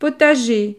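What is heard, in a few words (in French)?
"potager", French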